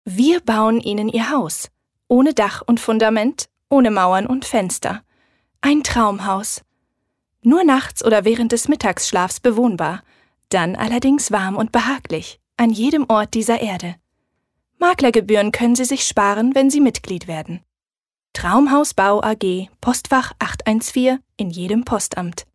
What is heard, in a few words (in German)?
Werbung Kraftvoll